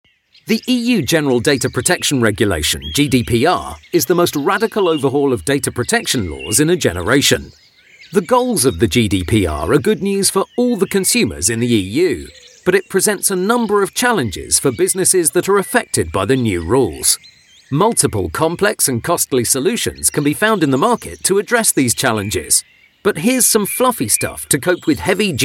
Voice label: financial corporate

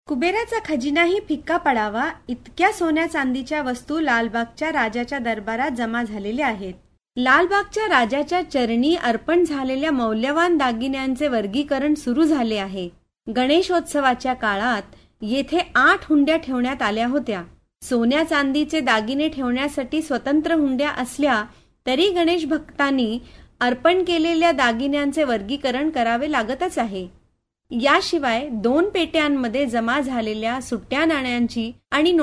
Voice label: marathi

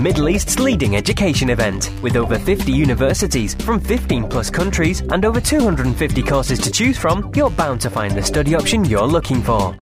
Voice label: college ads

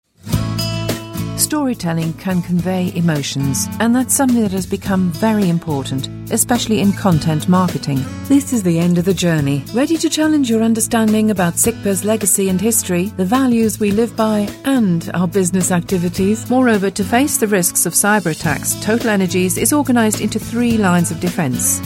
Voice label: elearning

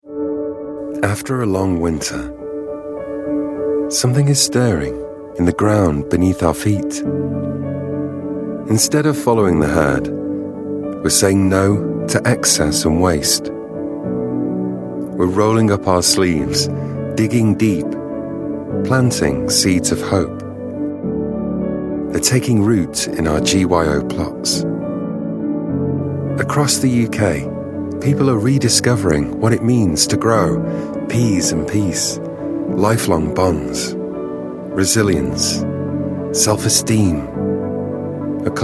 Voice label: brand film

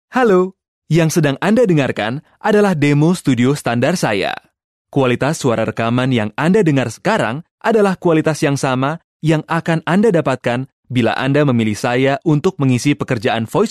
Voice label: indonesian male dry studio

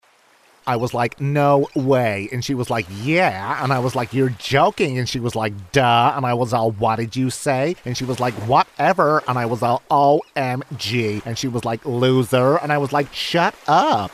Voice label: californian girl

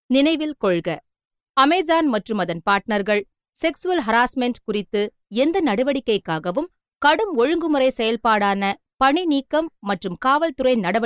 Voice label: tamil female

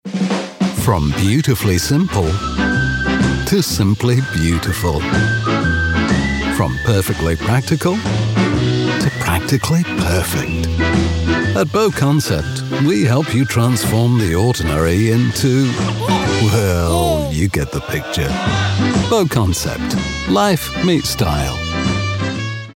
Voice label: commercial

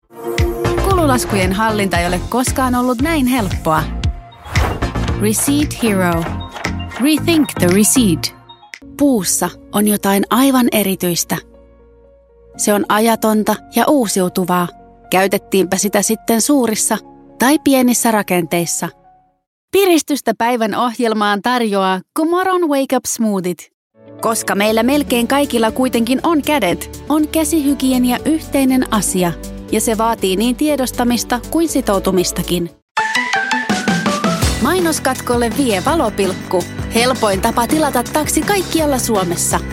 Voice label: finnish female voice montage

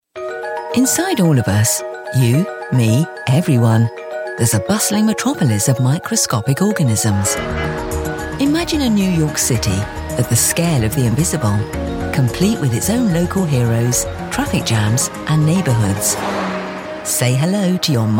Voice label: documentary

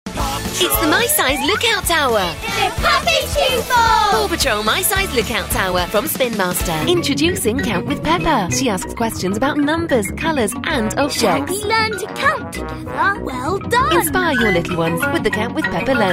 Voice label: child oriented commercials

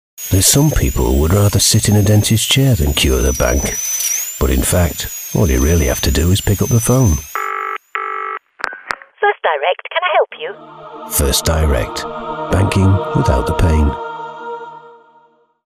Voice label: south yorkshire

